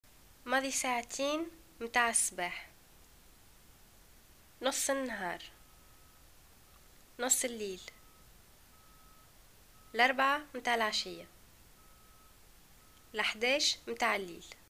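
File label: time, Tunisian arabic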